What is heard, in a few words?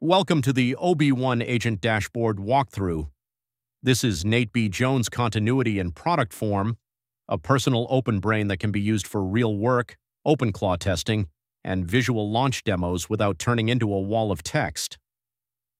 voiceover